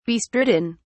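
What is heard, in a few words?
"bestridden", British English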